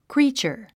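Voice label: "creature", English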